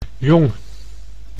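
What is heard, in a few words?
"jong", Dutch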